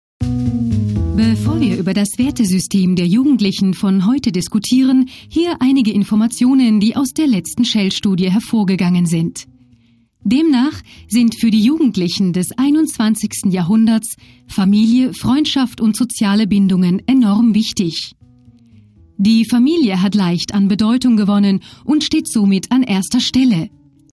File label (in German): Ansage